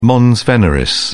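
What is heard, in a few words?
"mons veneris", British English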